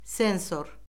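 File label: "sensor", Azerbaijani; Orfoqrafiya Lüğəti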